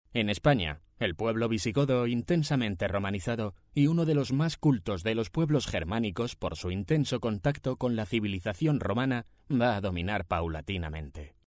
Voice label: locutor spanish voiceover

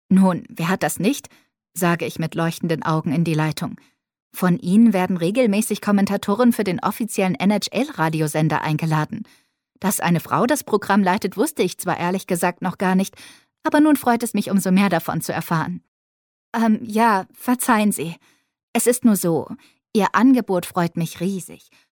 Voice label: locutor alemana german voiceover

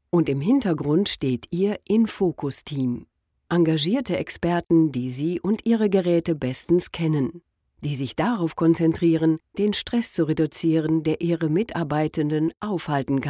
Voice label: locutora alemana german voiceover; German female voice

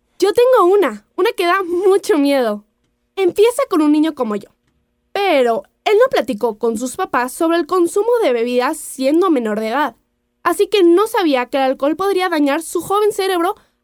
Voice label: latin american spanish child voices

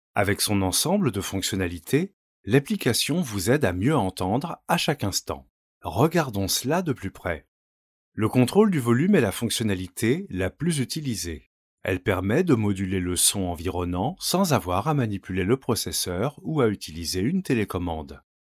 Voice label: locutor frances french voiceover

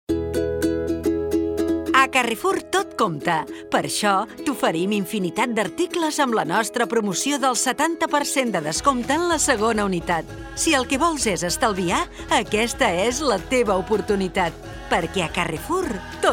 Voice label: locutora catalana catalan voiceover

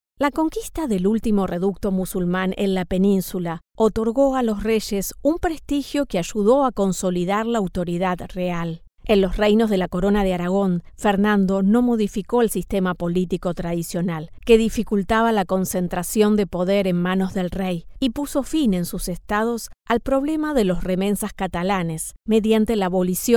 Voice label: locutora argentina argentinian voiceover

